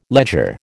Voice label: "ledger", American

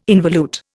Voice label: "involute", Indian

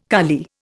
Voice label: "kali", Indian

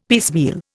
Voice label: "piecemeal", Indian; Dictionary

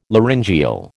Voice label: "laryngeal", American

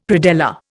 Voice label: "predella", Indian; Dictionary

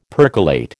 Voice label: "percolate", American

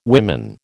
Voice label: "women", American

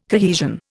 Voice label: "cohesion", Indian

